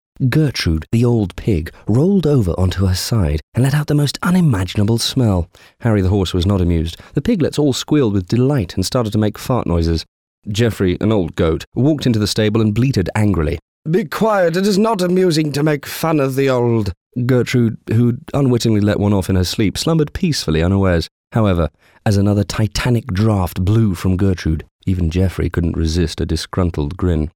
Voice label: Kids Narrative; Extract from Geoffrey the Goat